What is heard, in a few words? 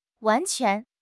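"wan2 quan2", Mandarin Chinese; HSK4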